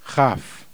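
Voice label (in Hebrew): "chaf", Hebrew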